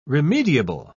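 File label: "remediable", British English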